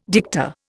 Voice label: "dicta", Indian